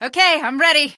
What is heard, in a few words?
ok im ready, female; Source Engine; video game; npc